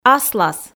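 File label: "аслас", Komi, female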